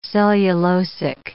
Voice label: "cellulosic", English